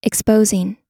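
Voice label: "exposing", US English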